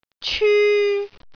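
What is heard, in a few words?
"cyu1", Cantonese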